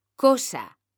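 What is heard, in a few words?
"COSA", Spanish, female; mekanta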